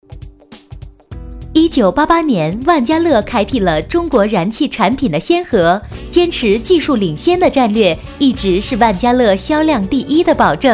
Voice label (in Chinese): 女 广告; 万家乐